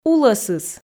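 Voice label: "улӧсыс" Komi, female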